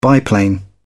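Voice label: "biplane", British English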